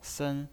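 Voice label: "sen", Mandarin Chinese pinyin voice, first tone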